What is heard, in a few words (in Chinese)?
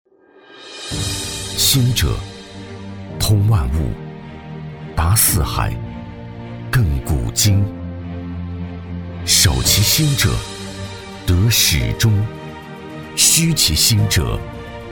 男 企业宣传 大气沉稳; 男42-磁性质感 大气浑厚; 联美集团